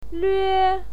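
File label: "lüe" first tone, pinyin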